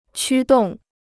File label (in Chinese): "驱动", Chinese